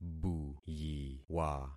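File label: "buyiwa", concatenated syllables; Affixing experiment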